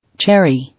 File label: "Cherry", English